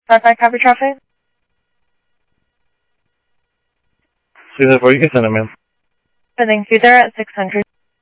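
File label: Sheriff East County, scanner audio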